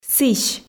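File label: "сісьӧ", Komi, female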